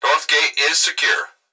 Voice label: Emergency Human Male Voice Plant Security Dispatch North Gate Is Secure; emergency effects